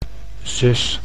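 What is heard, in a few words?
"zus", Dutch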